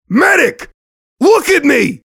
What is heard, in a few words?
mobster medic angry